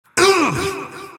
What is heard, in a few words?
monkey crown pain; dota; monkey king